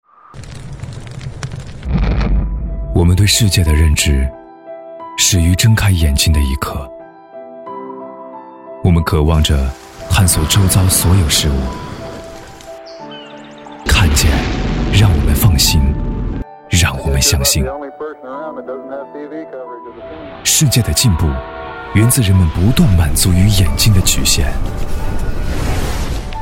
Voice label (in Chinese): 男 科技 大气 宣传片; 海康威视